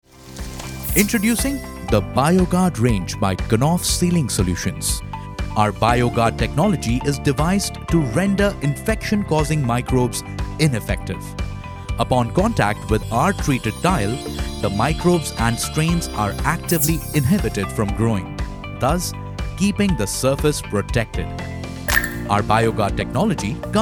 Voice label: english corporate videos, hindi voice actors; formally trained and experienced

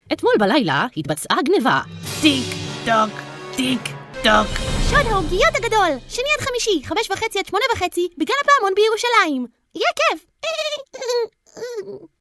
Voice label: hebrew animation

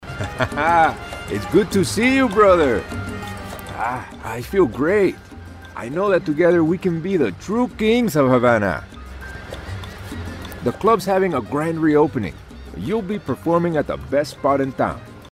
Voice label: english american video games

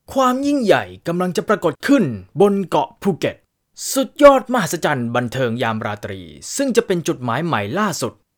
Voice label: thai commercial

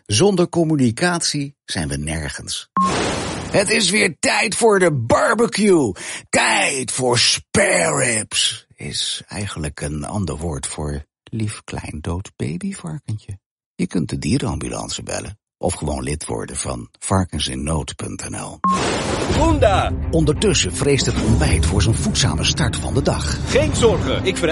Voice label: dutch promos

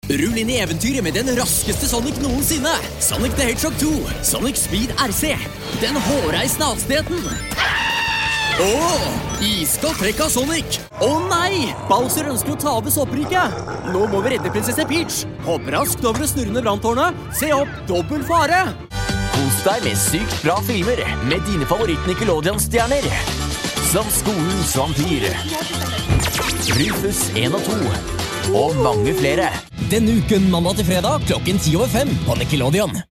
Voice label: norwegian others

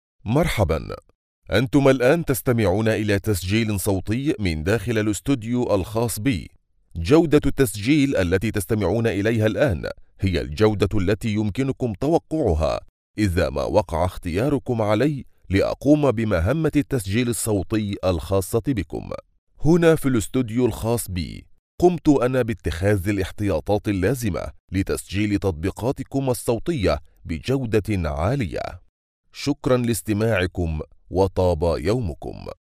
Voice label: arabic podcasts

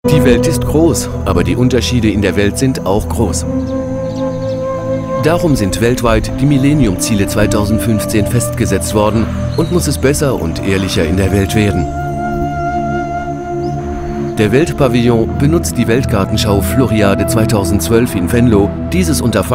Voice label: German corporate videos

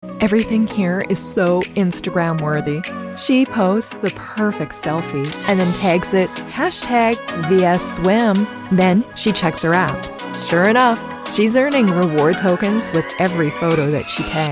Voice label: english canadian commercial

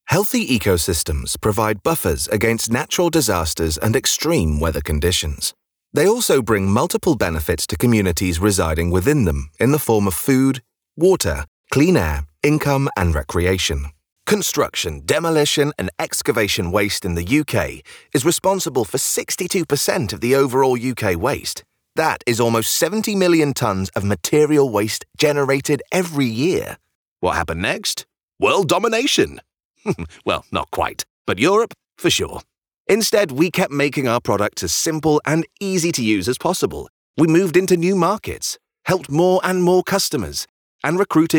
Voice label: english british explainer videos; Inglés (Reino Unido)

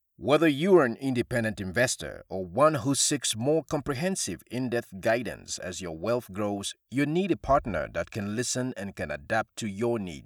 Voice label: english african promos

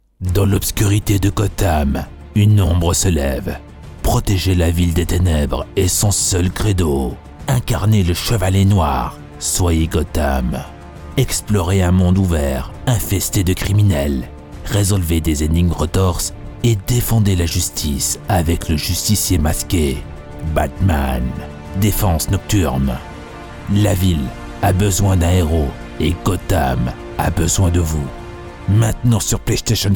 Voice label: french movie trailers

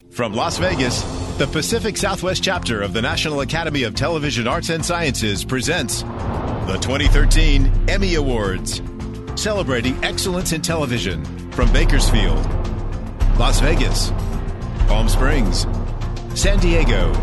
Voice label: english american announcements

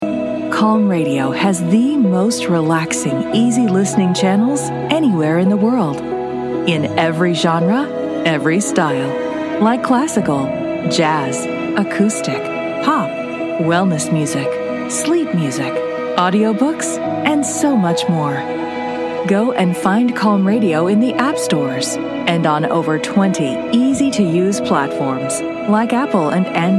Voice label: english american others

